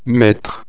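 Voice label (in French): "metre", French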